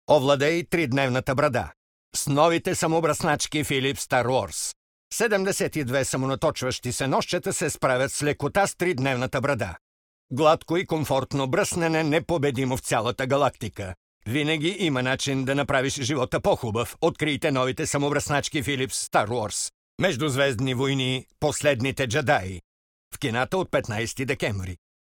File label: bulgarian m commercial, voice; voice demos